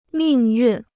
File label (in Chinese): "命运", Chinese